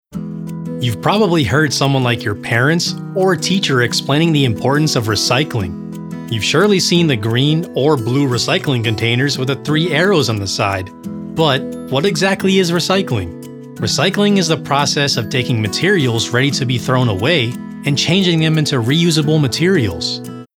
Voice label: E-Learning KIDS